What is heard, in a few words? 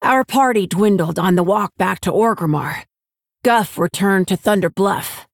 Female Orc; Rokara